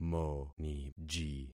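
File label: "moniji", concatenated syllables; Affixing experiment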